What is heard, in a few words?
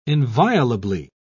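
"inviolably", British English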